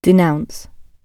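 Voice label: "denounce", British English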